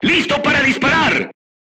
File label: voice message charged, crew tanks spanish